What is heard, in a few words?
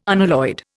"unalloyed", Indian